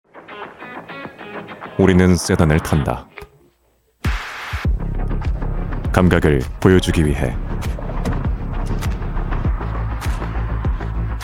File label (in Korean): 광고 젠틀하고 도시적인